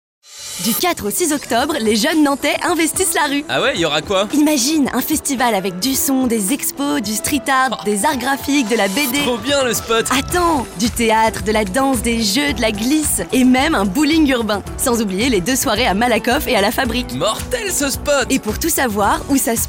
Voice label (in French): spots remix; ville de nantes